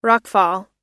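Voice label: "rockfall", English word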